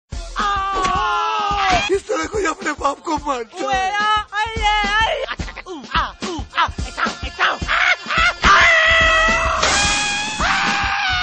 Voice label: Dialog; Golmal3

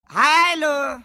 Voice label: Funny Hello

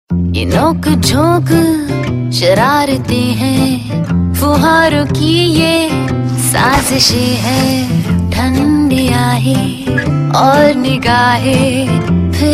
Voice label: Ad; Ye Nok Jhonk

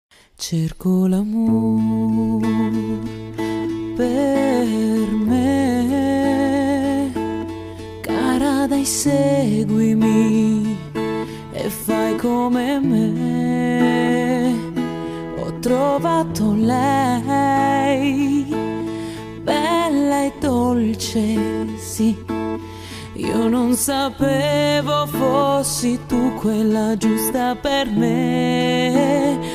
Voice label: perfect female

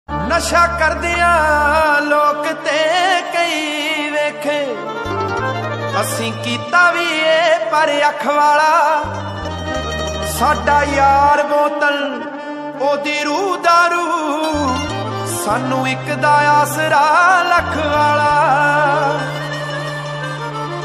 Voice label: Punjabi Shayari

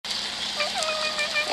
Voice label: Sad; Kuzco

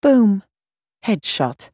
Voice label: Boom Headshot Female

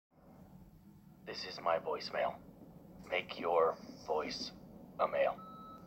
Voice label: voicemail

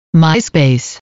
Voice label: Voice Alert; Myspace

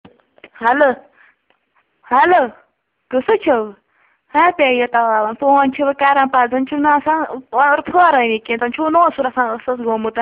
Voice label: Hello In Kashmiri

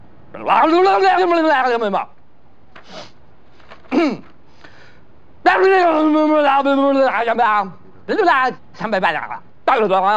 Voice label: Gibberish

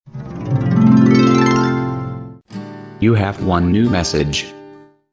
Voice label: Male Voice Msg Tone

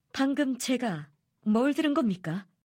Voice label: Angry; Jaehee

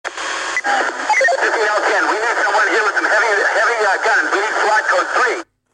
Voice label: Radio Traffic; LAPD

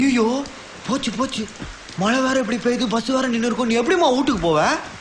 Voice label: Tamil Comedy

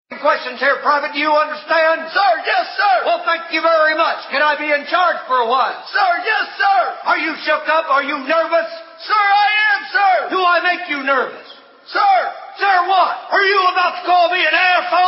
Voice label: Drill Instructor

